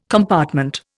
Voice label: "compartment", Indian

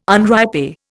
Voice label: "unripe", Indian

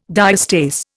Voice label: "diastase", Indian

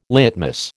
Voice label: "litmus", American

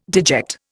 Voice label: "deject", Indian

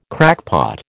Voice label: "crackpot", American

